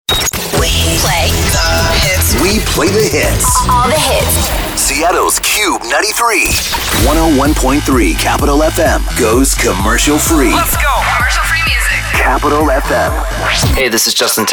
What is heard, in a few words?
radio chr, radio imaging